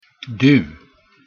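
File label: "du", Swedish pronunciation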